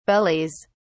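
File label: "bellies", British English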